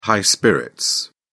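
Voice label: "high spirits", English, British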